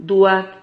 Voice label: "duad", Silabas

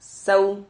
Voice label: "sahu", silabas

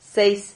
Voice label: "seis", silabas

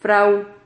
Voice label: "frahu", Silabas

